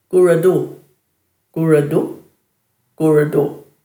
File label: "guradhu", Dhurga